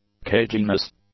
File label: "caginess", English